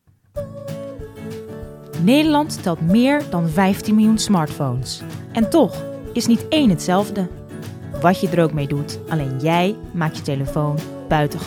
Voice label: Dutch commercial

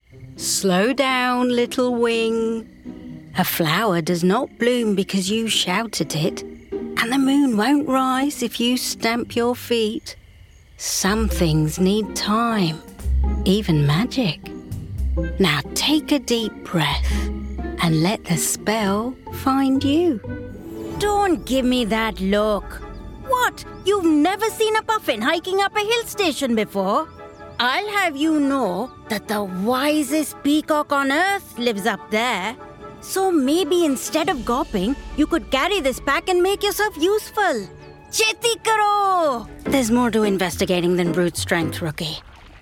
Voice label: Animation; regional accents

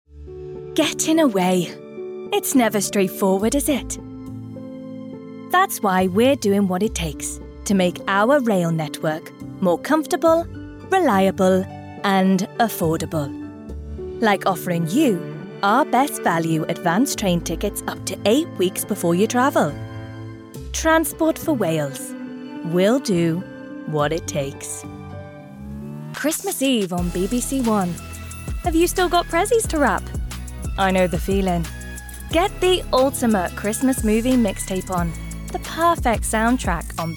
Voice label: Commercials, 20s-30s. Female. Welsh.; voiceover; Welsh Voiceover Artist Female

